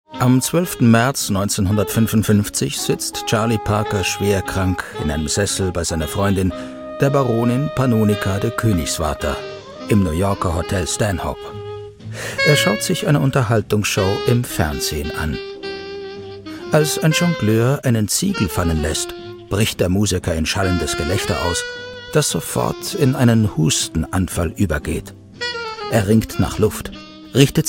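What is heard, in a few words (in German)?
dokumentation; arte; Muttersprache Deutsch, Deutsch/Schweizerdeutsch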